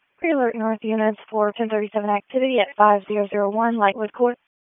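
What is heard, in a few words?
Raleigh Police Northeast District Dispatch, p25; wake p25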